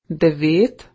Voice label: "9", Slovenian

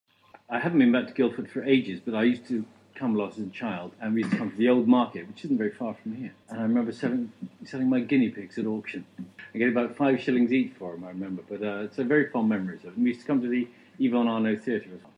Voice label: A reminiscence, general british

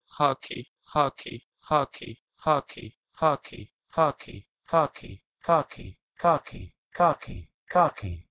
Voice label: Urdu xaki to English khaki

